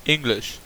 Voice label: english